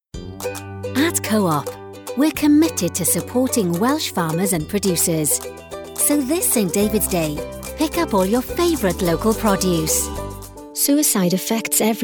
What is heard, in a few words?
F, UK UK, COM